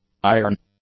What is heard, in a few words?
"iron", english; adjectives